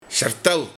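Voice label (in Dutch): "Sjertel", dialect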